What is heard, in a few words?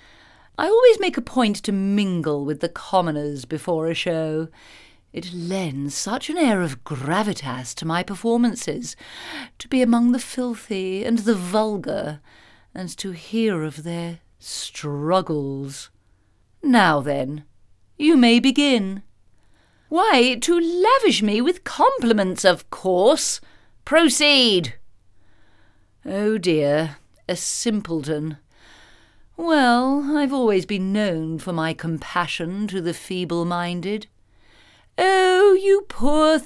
Videogame Villainess